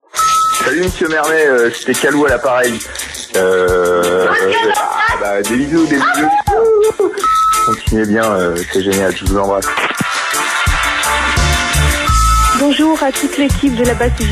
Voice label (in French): Repondeur mix